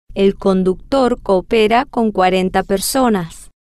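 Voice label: el conductor coopera con cuarenta personas, Spanish, female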